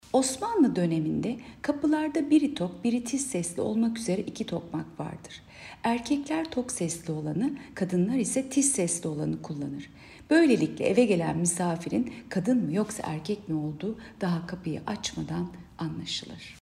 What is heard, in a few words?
turkce